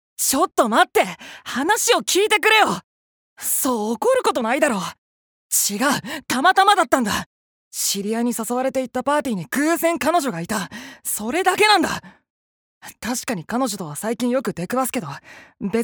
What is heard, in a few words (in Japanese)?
外画